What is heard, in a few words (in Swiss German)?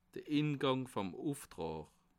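"ingang", Alsatian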